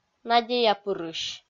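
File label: "надеяпырысь", Komi-Zyrian, female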